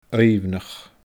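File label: "aoibhneach", Scottish Gaelic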